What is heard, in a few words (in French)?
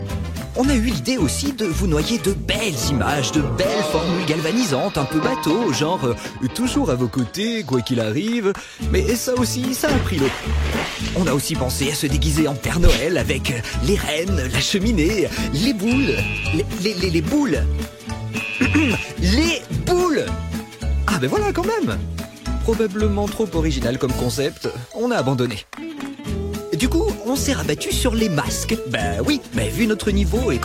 voix off HUMOUR